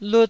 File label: "lut1", Taiwanese syllable